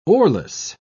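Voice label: "oarless", English, British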